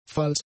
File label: "falls", German; conjunctions